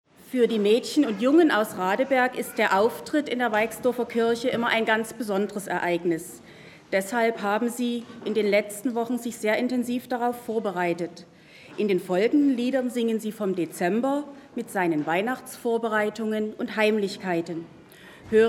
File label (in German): Ansage, Chor-Aufnahme